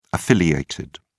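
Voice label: "affiliated", English, uk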